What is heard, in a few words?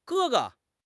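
"ge1 ge5", Mandarin Chinese; HSK2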